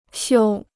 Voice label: "修", Chinese

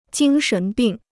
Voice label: "精神病", Chinese

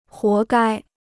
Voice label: "活该", Chinese

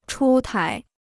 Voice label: "出台", Chinese

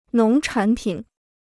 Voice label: "农产品", Chinese

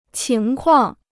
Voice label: "情况", Chinese

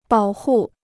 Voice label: "保护", Chinese

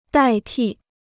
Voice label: "代替", Chinese

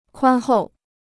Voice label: "宽厚", Chinese

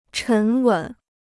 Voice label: "沉稳", Chinese

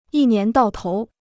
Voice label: "一年到头", Chinese

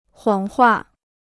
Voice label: "谎话", Chinese

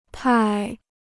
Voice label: "派", Chinese

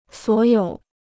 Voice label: "所有", Chinese

